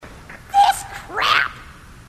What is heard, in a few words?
grunt; h2dialogue; grunt crazy